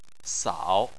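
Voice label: "sao" tone 3, Chinese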